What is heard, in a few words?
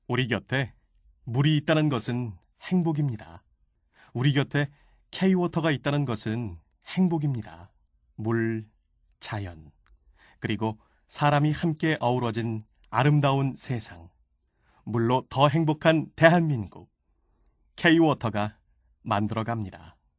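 Korean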